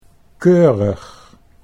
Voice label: "keurig", Dutch